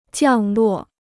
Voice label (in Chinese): "降落", Chinese